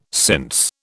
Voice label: "since", British